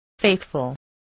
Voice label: "faithful", voice

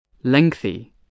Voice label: "lengthy", spelling

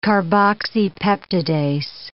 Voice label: "carboxypeptidase", English

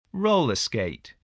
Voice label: "roller-skate", English spelling

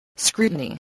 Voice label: "scrutiny", english spelling

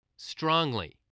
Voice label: "strongly", spelling

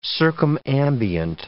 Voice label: "circumambient", English

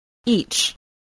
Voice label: "each", English

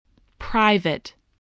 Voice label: "private", voice, spelling

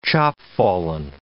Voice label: "chopfallen", English

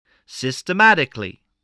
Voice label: "systematically", English

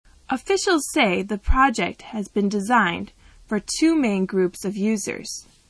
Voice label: M

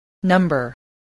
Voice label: "number", voice, spelling

